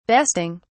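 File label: "besting", British English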